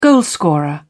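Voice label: "goalscorer", British English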